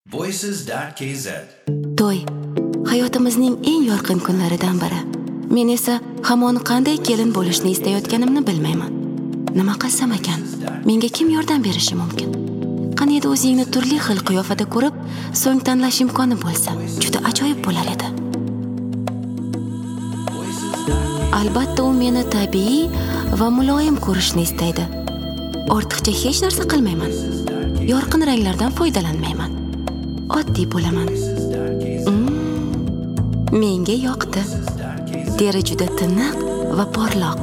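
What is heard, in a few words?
Uzbek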